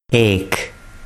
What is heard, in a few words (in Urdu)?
"ek", Urdu